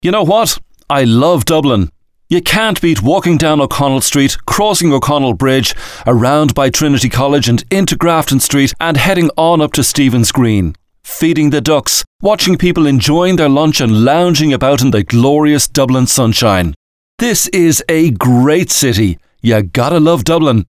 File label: animated, I love Dublin